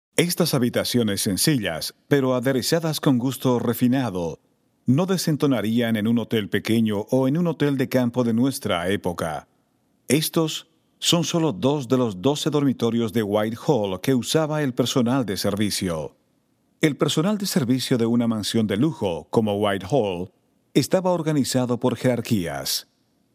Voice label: Spanish servants room